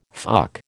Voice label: "fuck", American

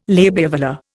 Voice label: "labiovelar", Indian

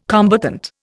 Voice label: "combatant", Indian

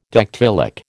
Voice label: "dactylic", American

